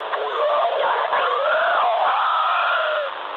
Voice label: ru damaged pilot; War Thunder